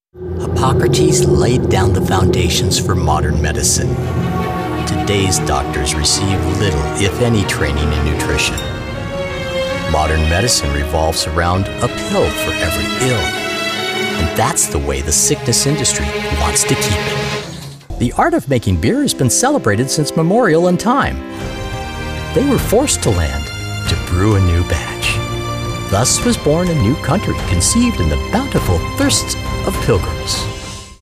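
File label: American narration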